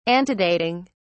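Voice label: "antedating", British English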